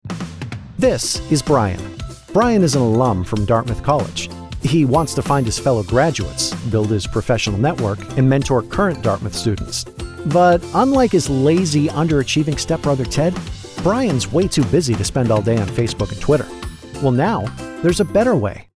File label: Explainer Social Media